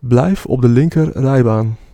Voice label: Ln Left, Dutch, male

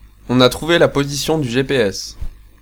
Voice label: Gps Found, French male

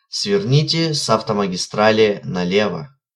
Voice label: Mw Exit Left, Russian, male

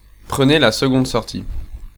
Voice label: French male

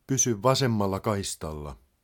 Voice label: Finnish male; Opas; Ln Left

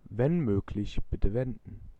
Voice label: Try U Turn, German, male

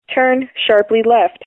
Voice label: "Sharp Left", American English, female

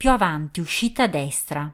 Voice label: Ah Exit Right, Italian female

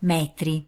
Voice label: Meters, Italian female

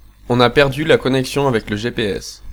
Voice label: Gps Lost, French, male

